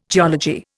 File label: "geology", Indian